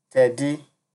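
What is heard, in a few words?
"teddy", Nigerian Pidgin, male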